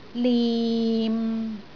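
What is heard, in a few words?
"lim6", Cantonese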